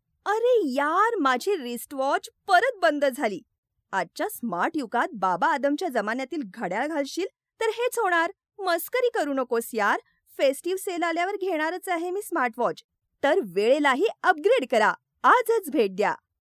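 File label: Marathi India female voice over, sales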